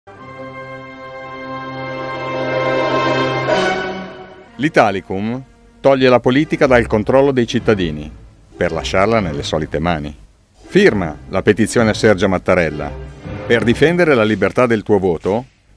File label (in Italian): Spot Radio; campagna contro Italicum